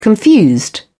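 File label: "confused", British English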